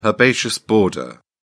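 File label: "herbaceous border", British English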